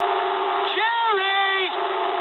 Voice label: eng enemy detected mood med, speech eng